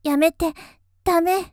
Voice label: やめて、駄目（嫌がり）; 綾波系少女

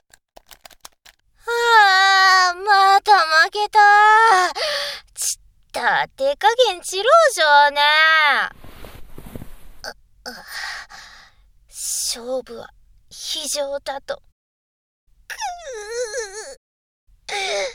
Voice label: ささやきでしゅこしゅこ（右選択）_ふぃにっしゅ右（手コキ音なし~ノイズ除去なし）; 酔いどれお姉ちゃんととれーにんぐ; ダミーヘッド&ダミーボディマイク使用

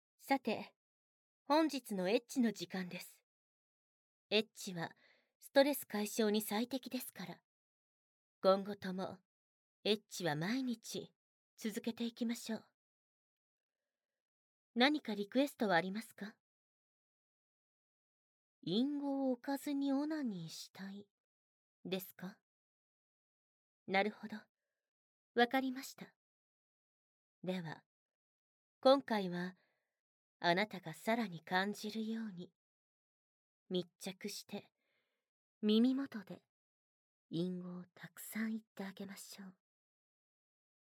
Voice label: バイノーラル淫語連呼音声; 事務的ナースさんのバイノーラル淫語連呼音声

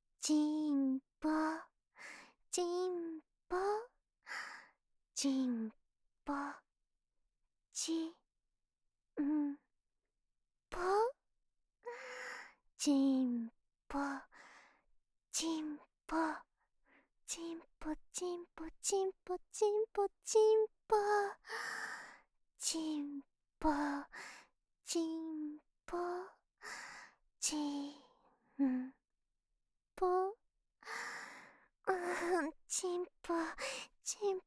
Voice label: 耳が幸せボイス; ちんぽ編

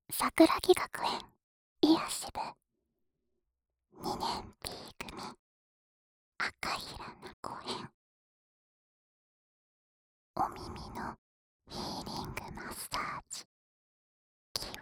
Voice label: タイトルコール, ASMR

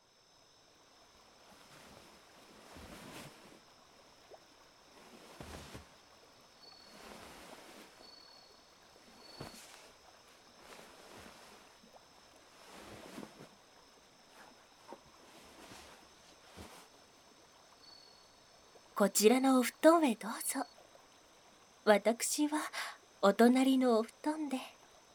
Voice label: 添い寝, ASMR; 離れ座敷せせらぎ～大自然の癒しとほのかなひととき～

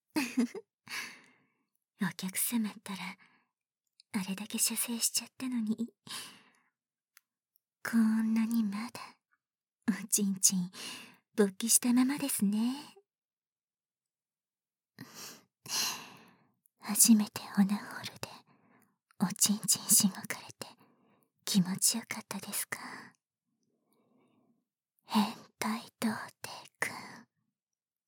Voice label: マッサージ SEなし, ASMR; マッサージの果てに…特別な想い出を♪

